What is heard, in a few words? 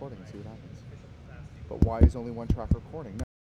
Male Voice; NYCC 2013